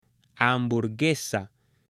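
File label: "hamburguesa", Spanish, male; AppLenguaje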